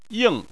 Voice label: "ying4", Chinese